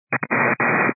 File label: 122 925MHz, scanner radio